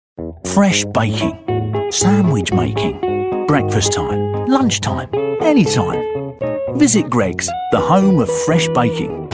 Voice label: cockney

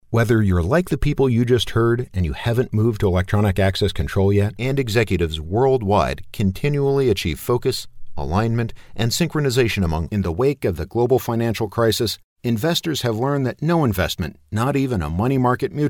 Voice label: e-learning

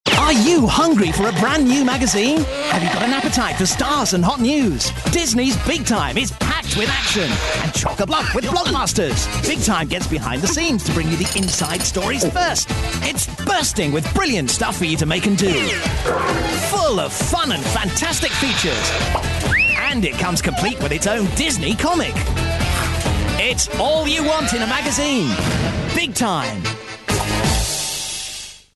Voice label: childrens

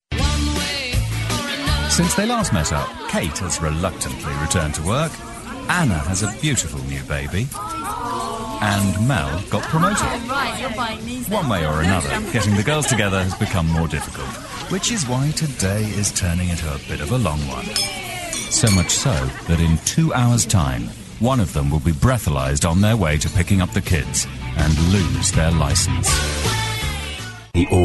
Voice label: commercials

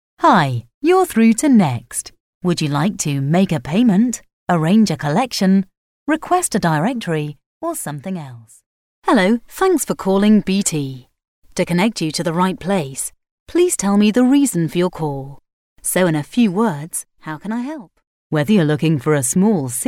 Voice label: Telephone

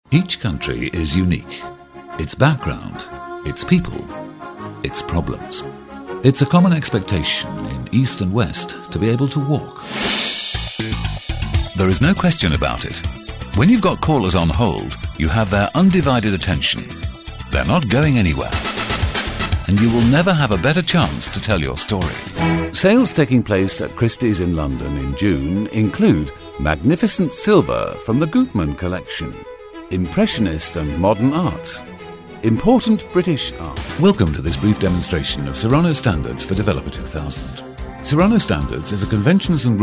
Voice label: narration

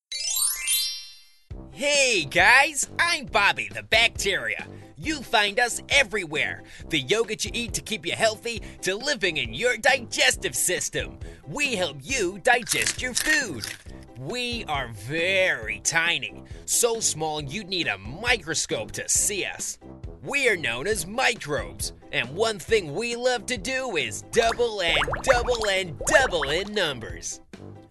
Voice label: american

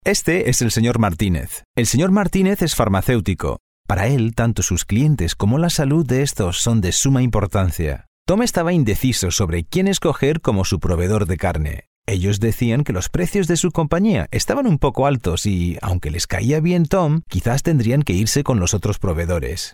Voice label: spanish commercial

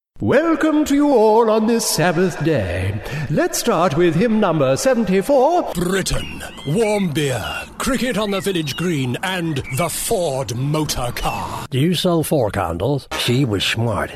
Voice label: character

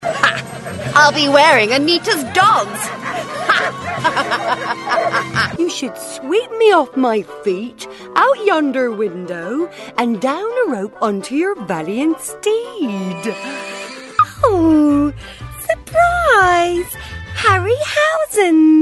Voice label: character

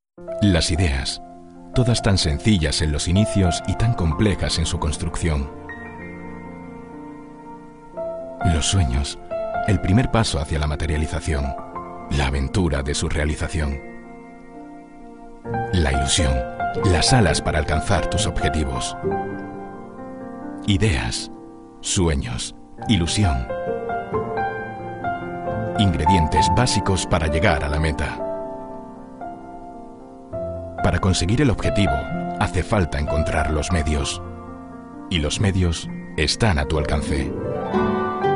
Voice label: friendly

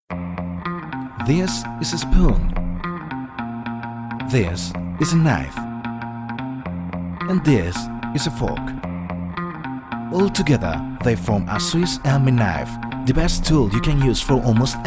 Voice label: Spanish Accent

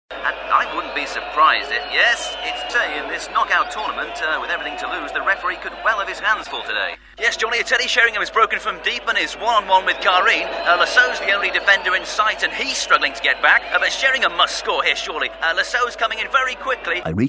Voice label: commentary

